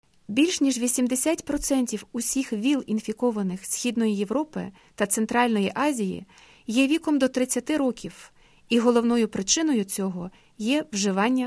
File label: spot ukrainian